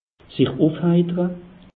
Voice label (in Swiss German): "sich uf heitere", alsace; meteo